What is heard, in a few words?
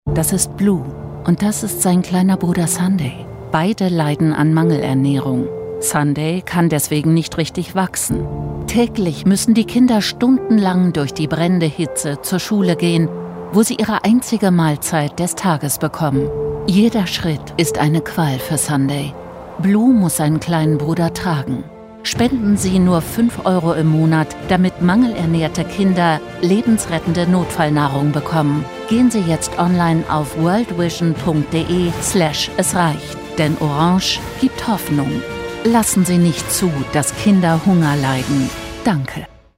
TV Ad, Doku, Presentation, German; World Vision